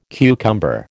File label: "cucumber", American